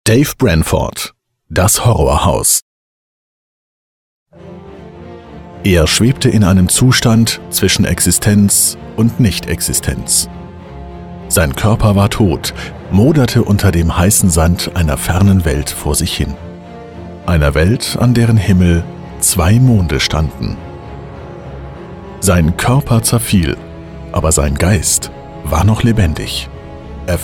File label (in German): Hörbuch; Das Horrorhaus